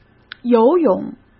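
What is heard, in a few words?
"游泳", Mandarin Chinese; chinese flashcards; hsk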